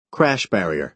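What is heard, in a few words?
"crash barrier", American English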